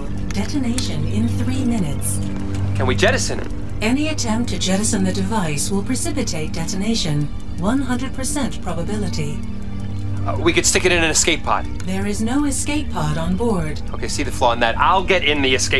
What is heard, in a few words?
Warship Computer; Dr Who; Captain Jacks